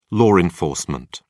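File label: "law enforcement", British English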